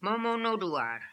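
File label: "Momonodooar", pronunciations; Fourth Way; Beelzebub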